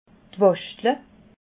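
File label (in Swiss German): "wachtla", Alsatian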